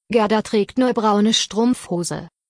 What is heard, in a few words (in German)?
"Strumpfhose", deutsch; damenbekleidung